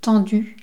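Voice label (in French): "tendu", French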